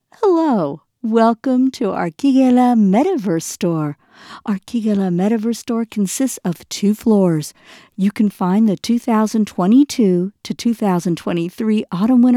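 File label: English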